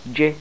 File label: laminal palatoalveolar, ngwo